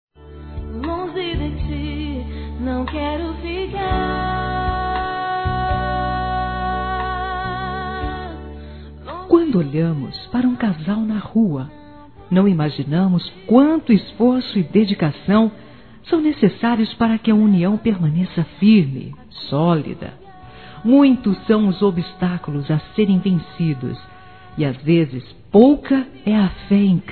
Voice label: ANIV CASAM GERAL (F), Telemensagens Aniversários Evangelico Fem

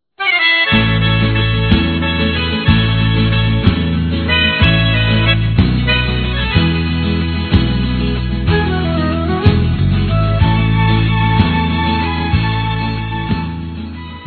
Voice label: Aniversário Tia F, Telemensagens

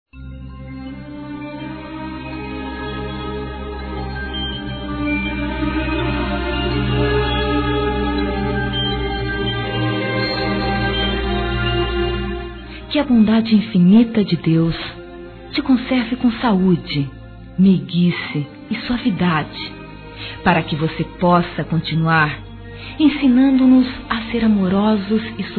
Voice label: Neutro Católico feminino

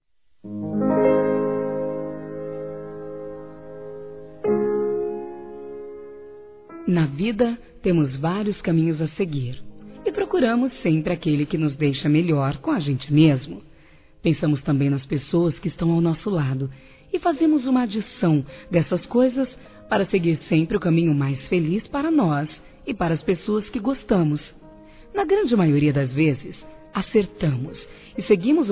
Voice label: NEUTRO FORMAL F; Aniversários; Aniversários diversos; fem

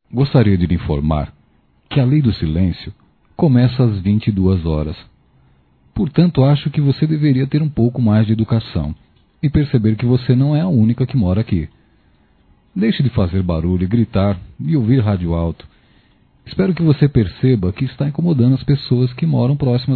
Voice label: Lei do Silencio Masculino, Broncas; Telemensagens 2017

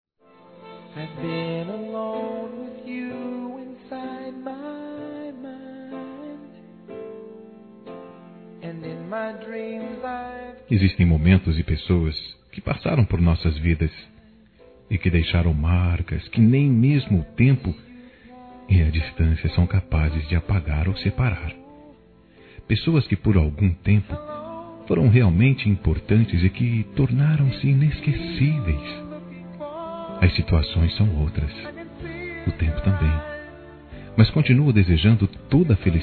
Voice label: Ex Namorada Masculino; Aniversários; ANIVERSARIO romantico

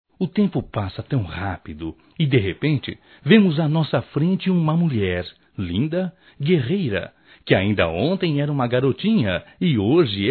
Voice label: Dia das Mães Sobrinha Masculino; Telemensagens 2017

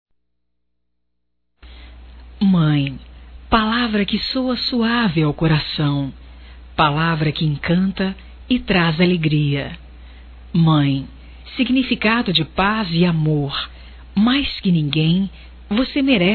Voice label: filha envia, telemensagens, Dia das Mães; Telemensagens 2017

